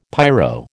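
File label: "pyro", American English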